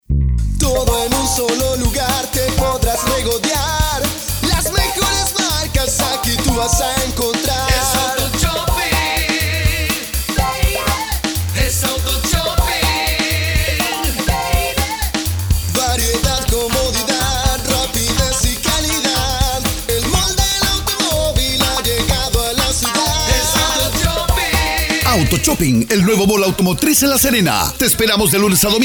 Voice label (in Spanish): jingle con locucion; AUTOSHOPPING